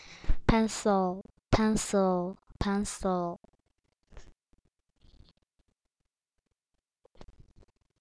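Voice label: "pencil", spoken word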